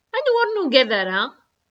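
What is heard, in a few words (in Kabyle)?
Anwa ur nugad ara?, Kabyle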